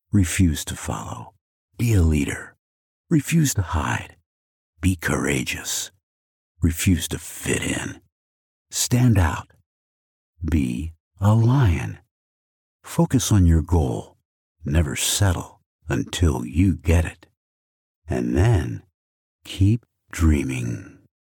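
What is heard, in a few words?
English USA male, english american voice over talent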